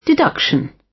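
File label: "deduction", British; Flora and fauna, agriculture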